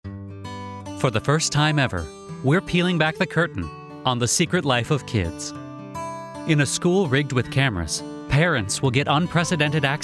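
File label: documentary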